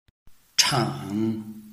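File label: "chan", Chinese, tone 3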